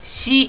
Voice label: "shi", japanese